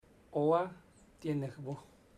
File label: if you go, chamacoco; Bahia Negra